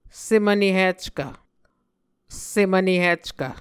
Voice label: "sem vnehickv", Muscogee